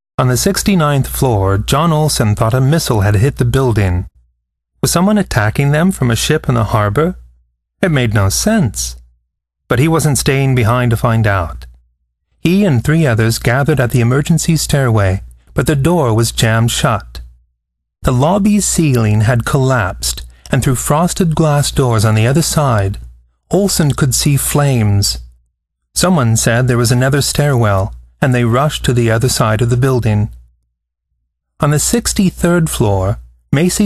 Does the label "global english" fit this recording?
No